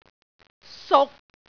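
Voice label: "suk6", Cantonese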